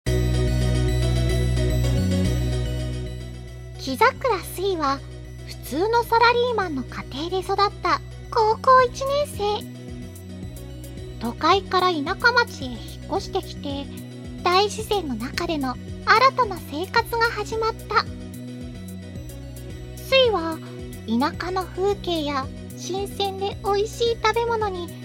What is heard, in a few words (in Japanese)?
語り; オープリング語り